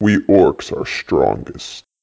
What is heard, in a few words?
orc man